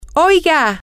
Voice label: hey, spanish, F